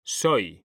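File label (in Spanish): "soy", Spanish; mekanta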